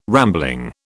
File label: "rambling", British